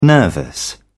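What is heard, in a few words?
"nervous", British English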